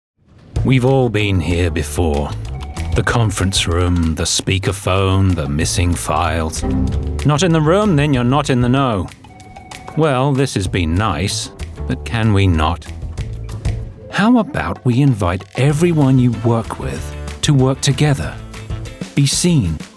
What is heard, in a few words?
commercial reel